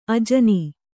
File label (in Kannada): "ಅಜನಿ", Kannada